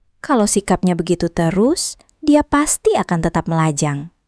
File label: indonesian phrases